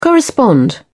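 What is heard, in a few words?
"correspond", British English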